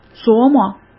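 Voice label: "琢磨", Mandarin Chinese; chinese flashcards; hsk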